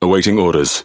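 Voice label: Speech Pilots Fighter, British English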